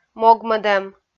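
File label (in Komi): "могмӧдӧм", Komi, female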